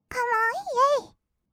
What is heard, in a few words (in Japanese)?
カモン イェイ, kawaii voice; 音ゲー